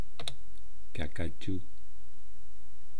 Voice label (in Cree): "kaahkaachuu", eastcree